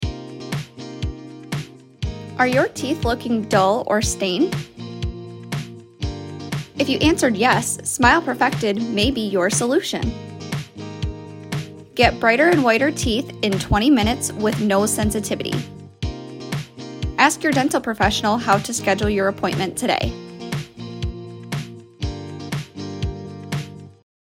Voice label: On-hold, Female